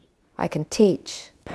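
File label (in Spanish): Voice Acting, Spanish, female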